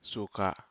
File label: "suka", Aneityum; bushes